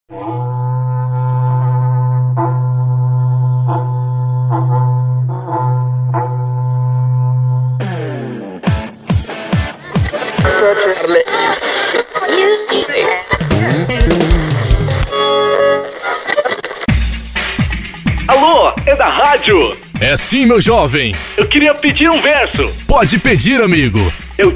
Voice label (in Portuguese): Locutor, Aniversario